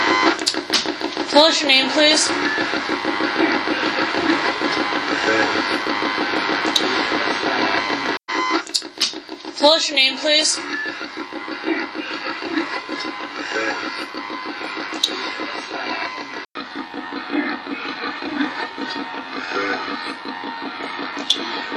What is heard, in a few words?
radio voice